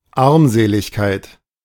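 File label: "Armseligkeit", German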